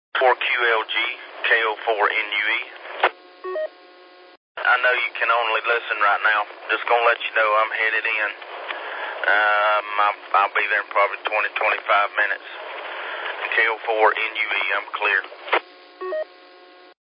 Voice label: qso rec simplex logic